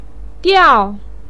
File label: "diao4", Chinese pinyin voice